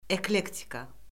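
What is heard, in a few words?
"eklektika", Azerbaijani; Orfoqrafiya Lüğəti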